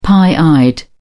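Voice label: "pie-eyed", British English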